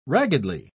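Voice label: "raggedly", British English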